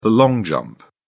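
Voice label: "long jump", British English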